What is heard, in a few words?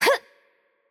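Attack Light, Russian; VO; bloom minigame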